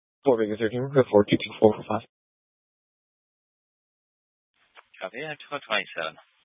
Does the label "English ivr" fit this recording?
No